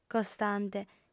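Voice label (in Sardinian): "costante", female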